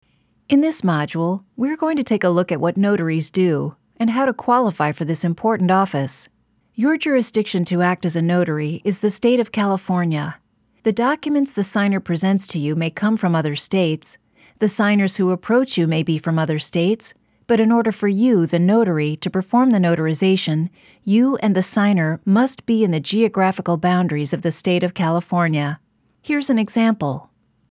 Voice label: Female Educational

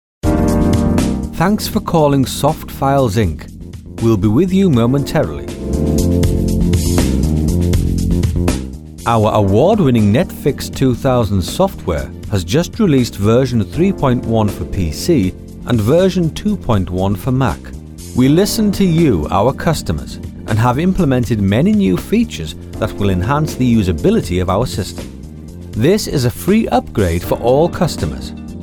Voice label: Music on hold

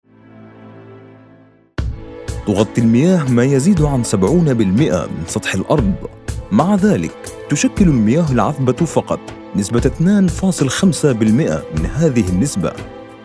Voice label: Documentary Water Classical Arabic

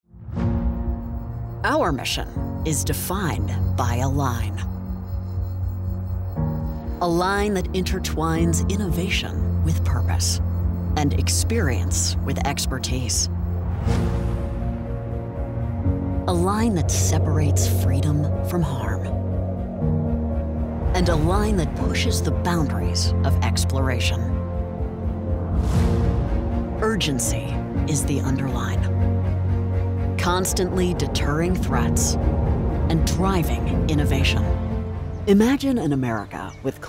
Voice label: Corporate Promo

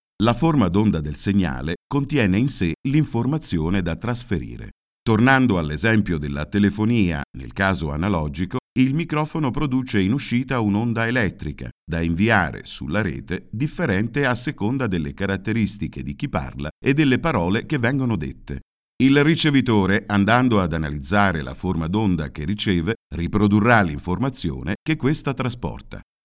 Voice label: e-learning

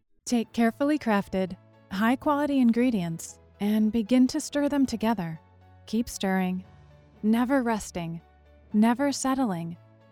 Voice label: Business Narration

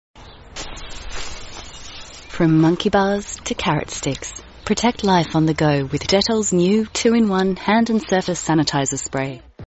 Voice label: Reassuring Commercial Aus; DETTOL

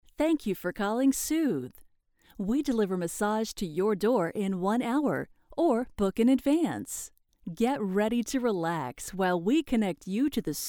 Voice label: On Hold

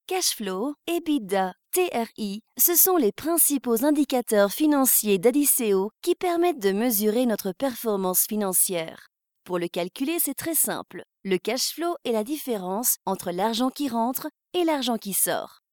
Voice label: Corporate french

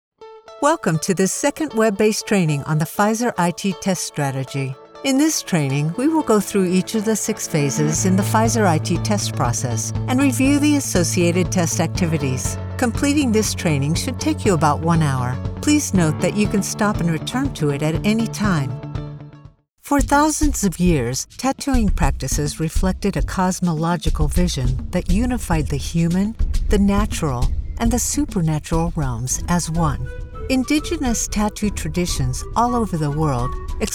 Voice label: e-learning narration

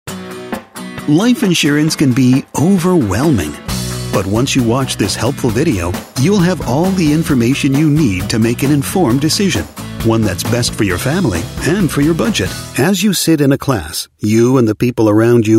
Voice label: eLearning training educational

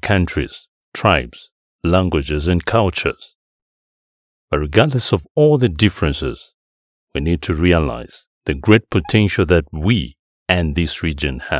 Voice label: Welcome to Africa English and Bemba

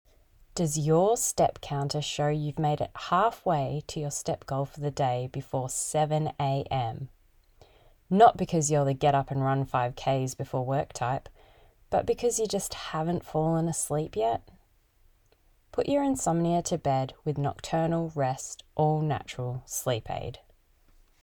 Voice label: advert

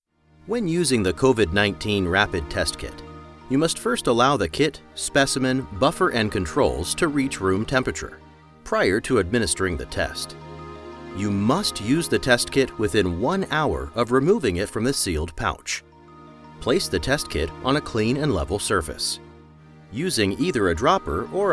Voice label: Medical Narration

